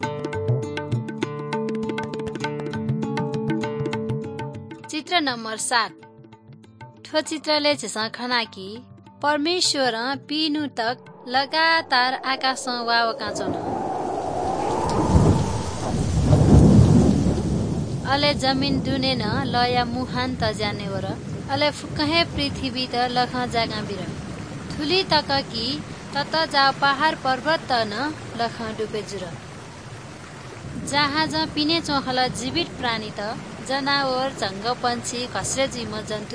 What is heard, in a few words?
good news newari balami